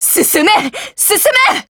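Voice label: SKILL Japanese; Type03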